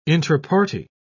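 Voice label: "intraparty", English, uk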